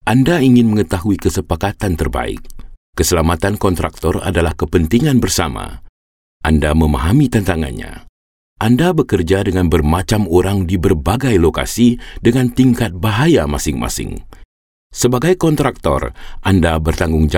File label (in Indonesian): Bahasa Indonesia Training Script, Bahasa Indonesia Male